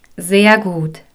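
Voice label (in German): "sehr gut", German; Unity; LearnWithTouch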